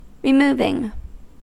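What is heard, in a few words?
"removing", US English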